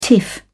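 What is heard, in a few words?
"tiff", British English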